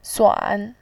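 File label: "suan", Mandarin Chinese, third tone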